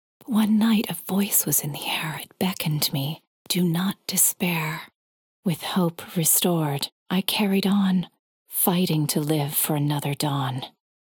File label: Poetry - Voice; Xing The Land Beyond; PS4